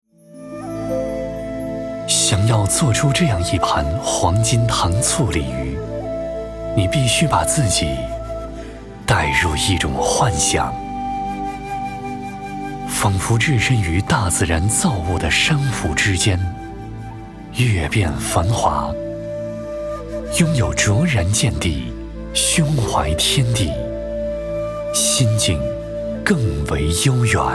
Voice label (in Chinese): 男 自然流露; 大自然